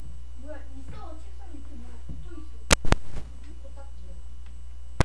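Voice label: "pick", spoken word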